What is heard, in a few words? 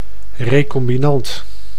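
"recombinant", Dutch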